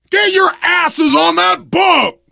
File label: arrive boat, survivor voice; source engine; left 4 dead 2